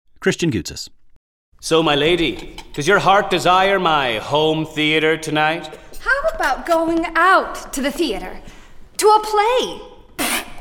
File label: Animation